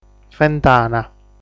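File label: "Fentana", Sardinian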